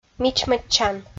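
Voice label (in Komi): "мичмӧдчан", Komi, female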